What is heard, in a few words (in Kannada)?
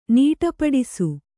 "ನಡಪಾಡಿಸು", Kannada